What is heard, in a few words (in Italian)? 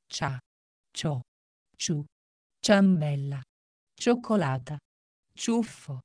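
cia cio ciu, italiano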